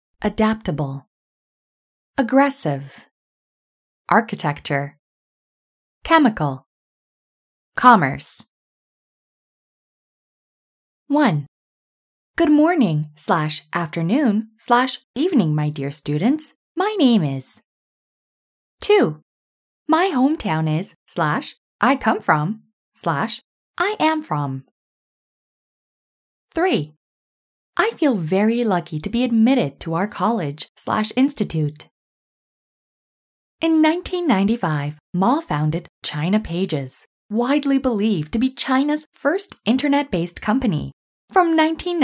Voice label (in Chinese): 外籍英语英文课本朗读美式口音